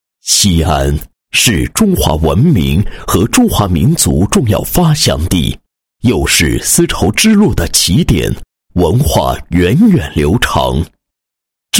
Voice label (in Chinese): 男 教育培训 舞蹈教学; 大秦飞凤艺术舞蹈教学